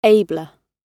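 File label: "abler", British English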